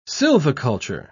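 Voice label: "silviculture", British English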